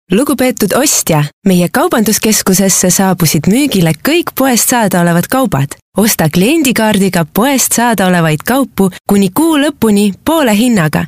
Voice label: (MOT) Estų balsas

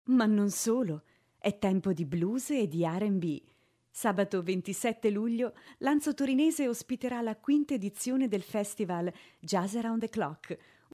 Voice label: (MOT) Italu balsas; moteris

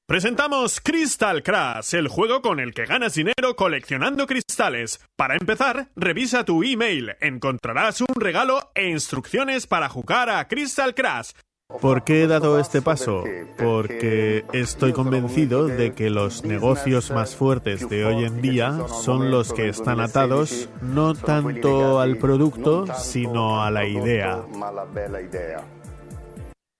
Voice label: Ispanu balsas, vyras

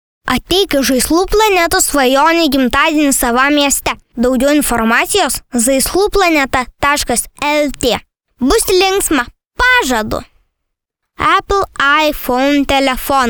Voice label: VAIKIŠKAS 10METŲ, lietuviu, moteris

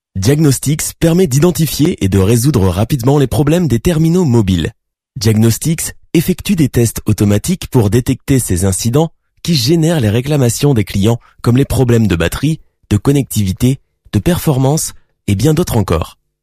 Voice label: VYR Prancuzu balsas, vyras

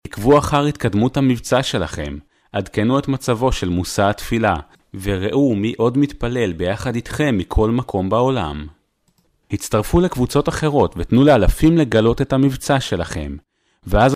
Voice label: (VYR) Hebraju balsas; vyras

